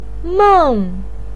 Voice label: "meng4", Chinese pinyin

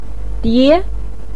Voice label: "die2", Mandarin

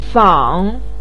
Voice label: "fang3", Chinese pinyin; 仿

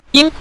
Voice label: "ying", Mandarin

